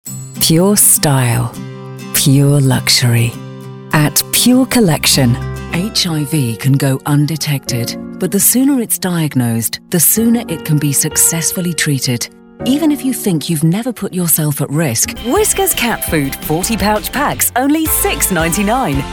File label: british commercial